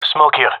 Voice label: request smoke, gendarmerie male; vo